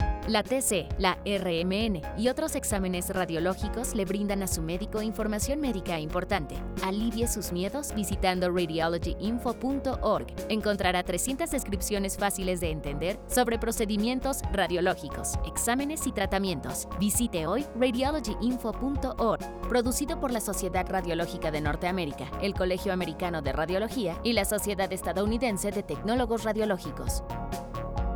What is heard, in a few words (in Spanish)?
female